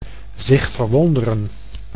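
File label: "zich verwonderen", Dutch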